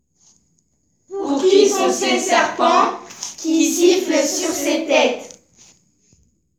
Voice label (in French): Virelangue serpent tous ensemble